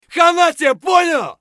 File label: bear battlecry; eft